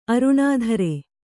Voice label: "ಅರುಣಾಧರೆ", Kannada

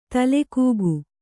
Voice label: "ತಲೆಕೂಗು", Kannada

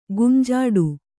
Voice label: "ಗುಂಜಾಡು", Kannada

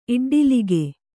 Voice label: "ಇಡ್ಡಲಿಗೆ", Kannada

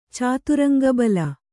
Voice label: "ಚಾತುರಂಗಬಲ", Kannada

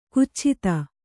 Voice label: "ಕುಚ್ಛಿತ", kannada

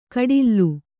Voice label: "ಕಡಿಲ್ಲು", Kannada

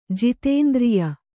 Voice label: "ಜಿತೇಂದ್ರಿಯ", Kannada

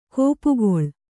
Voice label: "ಗುಪ್ಪೆಗೊಳ್", Kannada

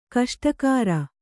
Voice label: "ಗೋಷ್ಠಾಗಾರ", kannada

